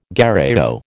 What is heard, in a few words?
"gherao", American